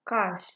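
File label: "cash", Nigerian Pidgin, female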